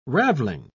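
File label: "ravelling", British English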